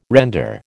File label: "render", American